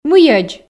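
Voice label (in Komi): "мыйӧдз", Komi, female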